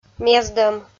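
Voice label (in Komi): "мездӧм", Komi, female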